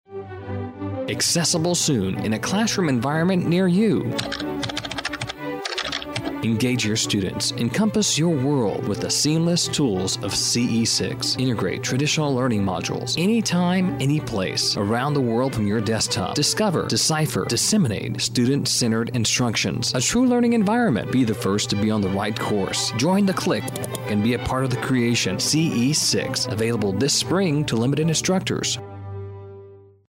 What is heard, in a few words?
Radio Spots